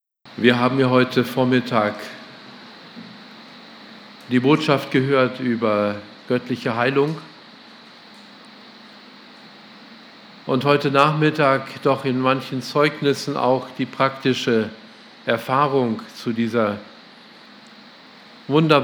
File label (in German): Ansage; Lagerversammlung 2018